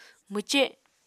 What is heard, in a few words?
"mwce", Apatani